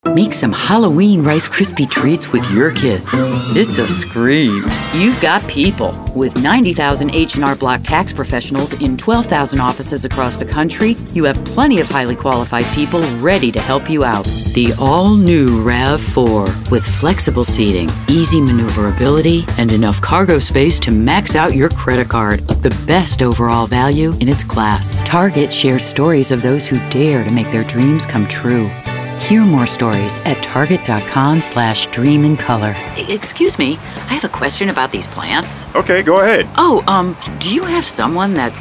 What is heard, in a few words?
commercial